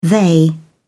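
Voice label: "they", British English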